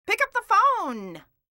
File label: "pick up the phone", voices